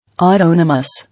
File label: "Autonomous", English